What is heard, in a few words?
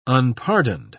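"unpardoned", British English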